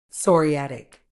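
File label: "psoriatic", medical English, British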